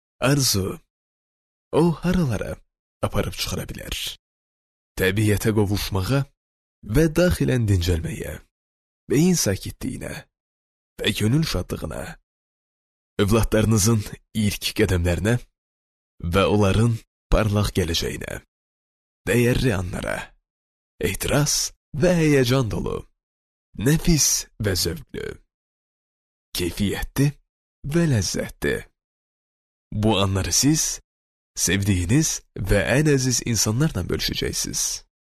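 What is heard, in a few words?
Azerbaijani male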